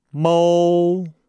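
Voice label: "mou", Chinese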